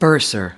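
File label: "bursar", US English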